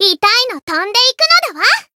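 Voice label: heal, Japanese; thillelille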